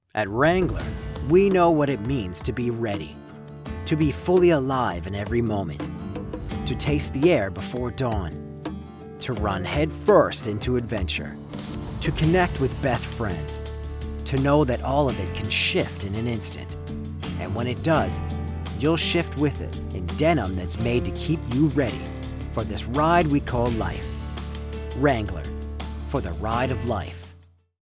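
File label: Ad with music; Wrangler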